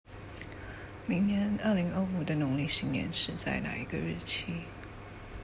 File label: chinese prompt; aya expanse